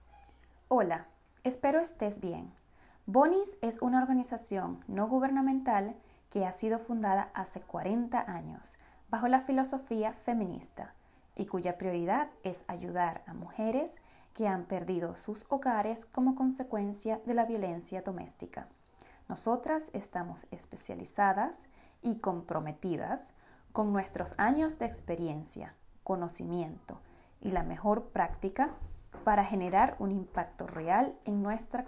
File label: Spanish; Bonnies